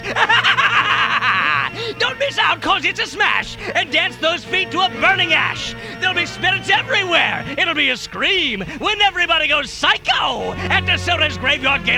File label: character